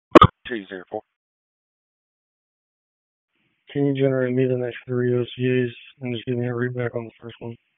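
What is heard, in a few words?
Wake Forest Police Dispatch, p25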